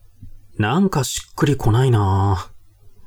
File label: man